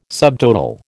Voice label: "subtotal", American